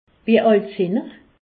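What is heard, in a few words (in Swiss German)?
"alt", Alsace, Haut Rhin; medecine; quel est votre âge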